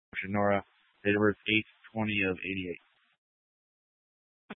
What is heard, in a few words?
Police Dispatch, scanner; Police 1 Dispatch